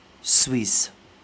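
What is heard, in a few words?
"Suisse", French, us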